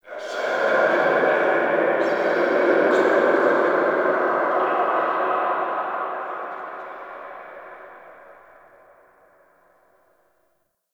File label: Prayer Whisper; Tau; Protoframe Room